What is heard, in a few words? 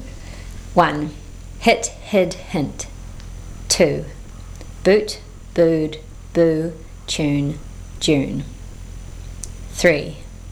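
speaker wordlist, New Zealand English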